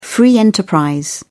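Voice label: "free enterprise", British English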